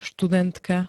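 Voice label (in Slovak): "študentka", Slovak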